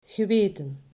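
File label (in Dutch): "geweten", Dutch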